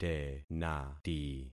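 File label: "tenati", concatenated syllables; Affixing experiment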